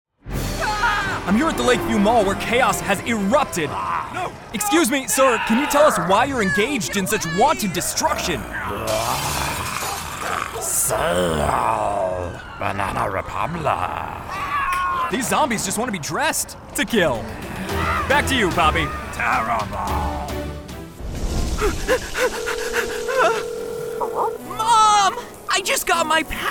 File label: men animation; Energetic and edgy. Intelligent and witty Millennial voice.